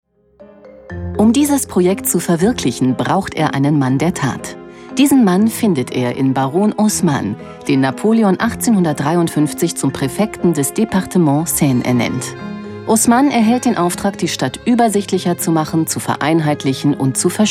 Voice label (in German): OFF Kommentar DOKU; Paris